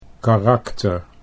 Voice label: "karakter", Dutch

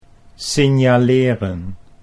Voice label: "signaleren", Dutch

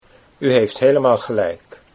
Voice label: U heeft helemaal, Dutch

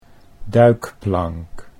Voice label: "duikplank", Dutch

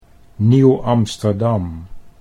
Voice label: "Nieuw Amsterdam", Dutch

